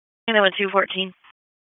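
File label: Police Northeast District Dispatch, p25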